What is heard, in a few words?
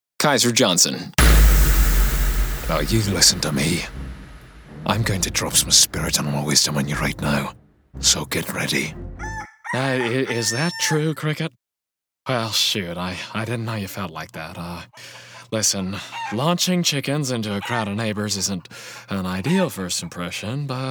animation male